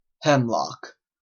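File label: "hemlock", Canadian English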